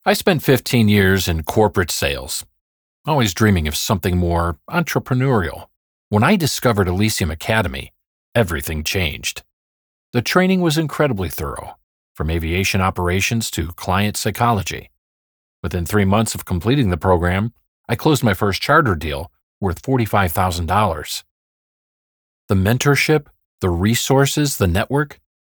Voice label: Video Testimonial; Actor Needed